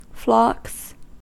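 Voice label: "flocks", US English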